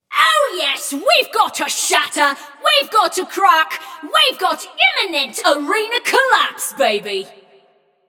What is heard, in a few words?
Scrap Run Entire Arena Nearly Gone, Announcer; Flux